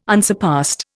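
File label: "unsurpassed", Indian English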